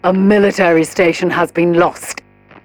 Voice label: advisor notification military station lost, militarist; Stellaris; ethics